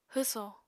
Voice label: "hwsò", Apatani